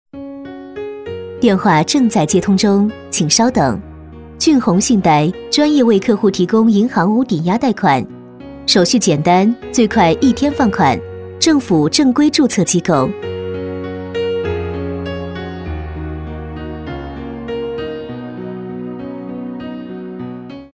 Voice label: 彩铃, 信贷, nv; 骏泓信贷

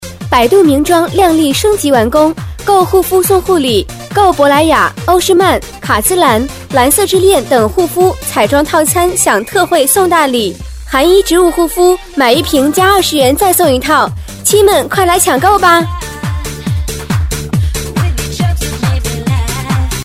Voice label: 促销 护肤

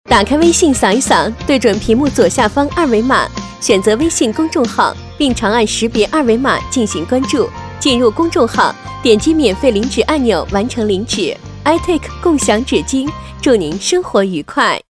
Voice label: 广告 知性女声 产品介绍

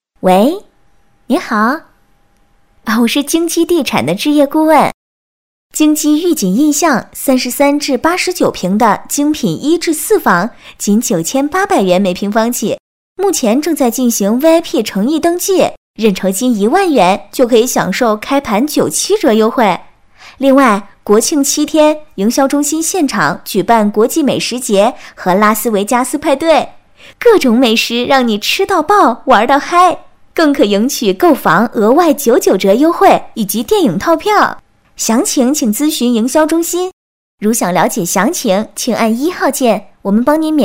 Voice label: 模拟打电话; 京基御景印象

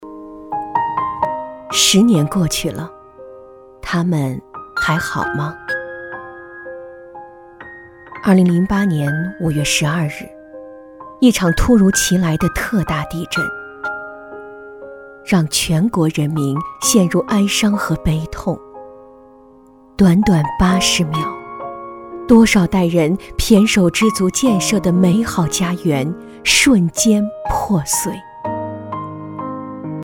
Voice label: 纪念配音; 电视专题

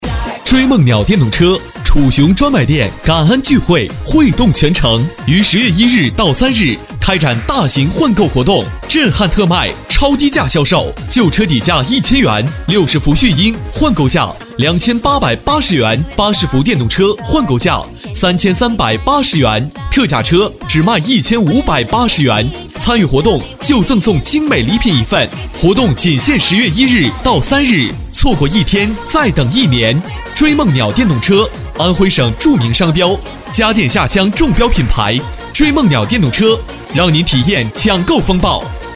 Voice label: 促销; 追梦鸟电动车

